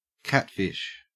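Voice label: "catfish", Australian English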